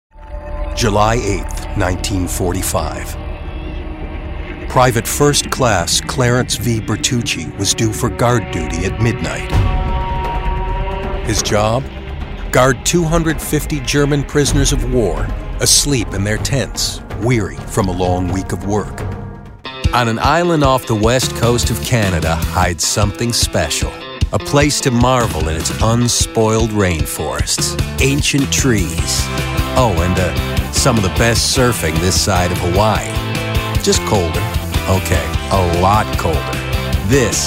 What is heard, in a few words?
men narration